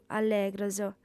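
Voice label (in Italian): "allegros", sarda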